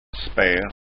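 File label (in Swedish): "sper", Scanian dialect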